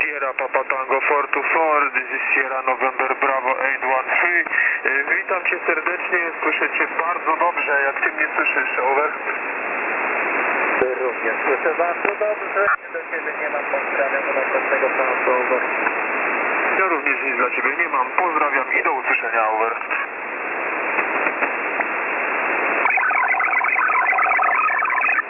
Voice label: polish